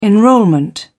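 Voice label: "enrolment", British English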